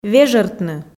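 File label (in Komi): "вежӧртны", Komi, female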